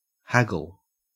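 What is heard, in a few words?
"haggle" Australian English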